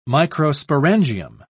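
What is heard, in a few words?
"microsporangium", British English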